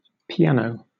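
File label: "piano", British English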